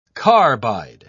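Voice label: "carbide", English, British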